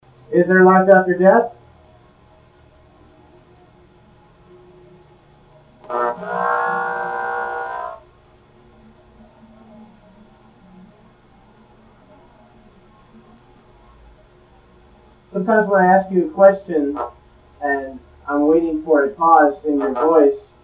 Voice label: group speak in bursts q and a